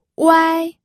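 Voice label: "wai1", Mandarin Chinese pinyin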